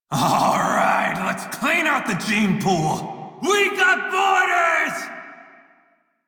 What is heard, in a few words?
Crew Enemy Spot Male en; Dialog; Warframe; Railjack Crew; Red Veil